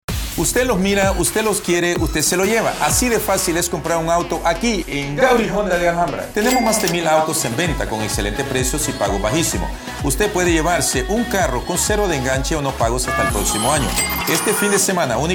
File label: Radio 60sec; Goudy Honda; Labor Day Sales